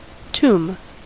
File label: "tomb", US